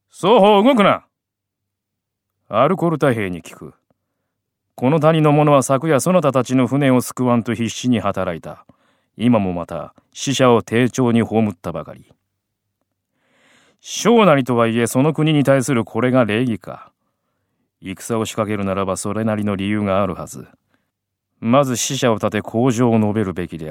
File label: male voice